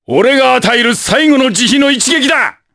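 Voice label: voice, Japanese; Baudouin; kingsraid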